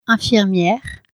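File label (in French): "infirmiere" French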